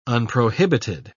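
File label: "unprohibited", British English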